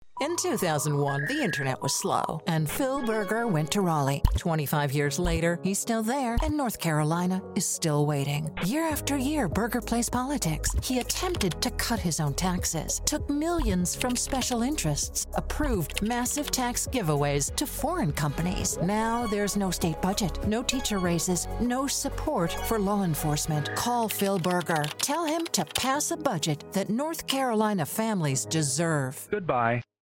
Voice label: Political, Political Commercial Voice Overs Female Voice Over; GPS NC Out of Time